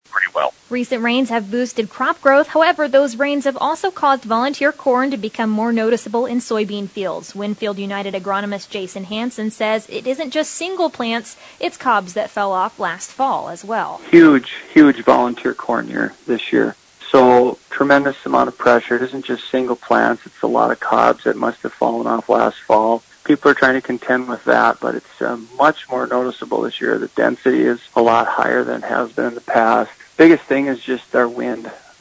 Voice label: air check; WinField United